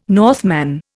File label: "Northman", Indian